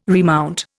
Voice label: "remount", Indian